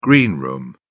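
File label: "green room", British English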